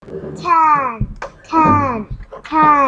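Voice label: "can", spoken word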